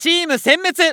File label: Announce Wipe Team, JPN; Eternal Return